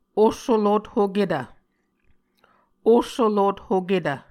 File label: "ohsolothoketv", Muscogee